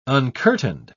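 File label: "uncurtained", British English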